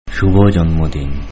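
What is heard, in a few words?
happy birthday bengali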